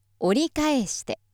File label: female